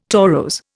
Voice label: "torose", Indian

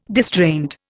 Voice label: "distraint", Indian

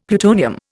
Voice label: "plutonium", Indian; Dictionary

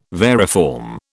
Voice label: "variform", British

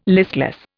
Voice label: "listless", Indian

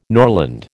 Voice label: "norland", American

